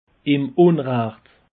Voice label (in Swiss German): "umracht", alsace; peche